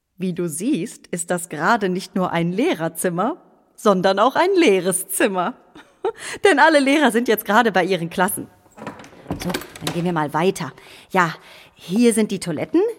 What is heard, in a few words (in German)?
Hoerspiel In der Schule